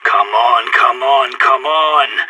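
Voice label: scared emote, voice; agents; sas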